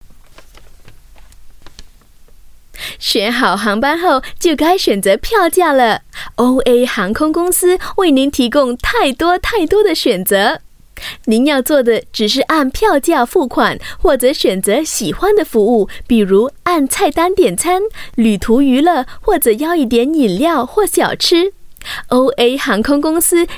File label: Mandarin Selling, China Female